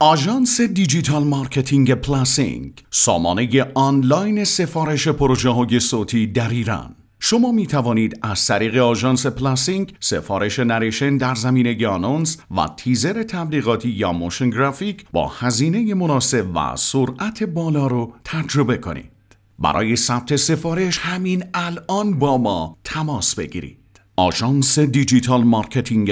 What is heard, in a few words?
narrator; نریتور و گوینده آقا